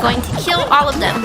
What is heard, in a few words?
"Fire", Worms speechbanks; Watson Amelia